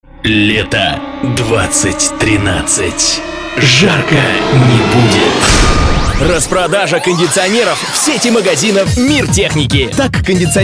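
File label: Russian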